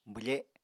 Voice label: "bwfe", Apatani